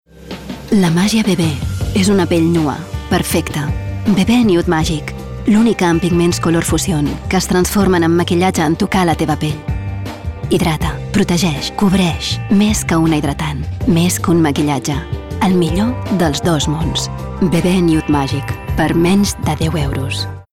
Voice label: female catalan comercial; ideasonora